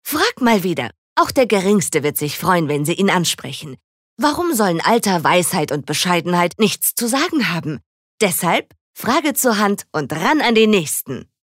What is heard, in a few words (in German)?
Werbung Dynamisch